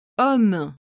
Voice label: "homme", French